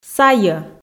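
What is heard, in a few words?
"сайӧ", Komi, female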